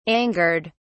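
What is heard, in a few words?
"angered", British English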